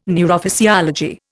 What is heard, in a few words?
"neurophysiology", Indian